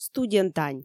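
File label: "студентань", Komi, female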